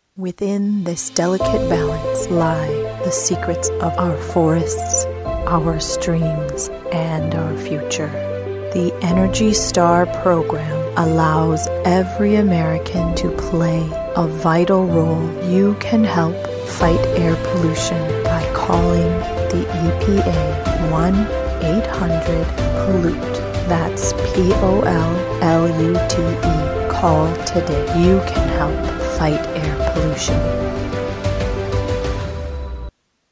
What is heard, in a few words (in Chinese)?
美式女声